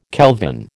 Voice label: "kelvin", American